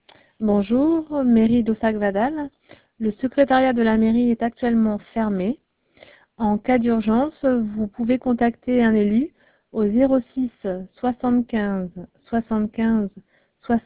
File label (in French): repondeur perso; MAIRIE